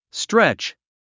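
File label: "stretch", English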